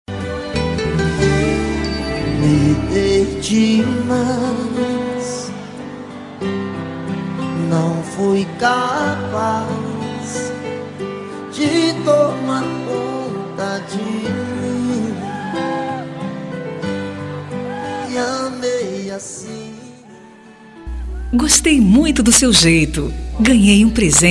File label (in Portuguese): Amizade Colorida Feminino